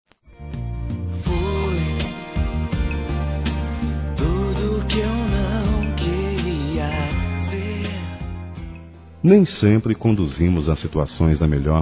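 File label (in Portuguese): desculpas romântica, masculino; Telemensagens 2017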